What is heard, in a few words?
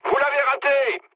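bomb failure, mood low, French; War Thunder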